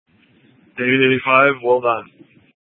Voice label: PD Dispatch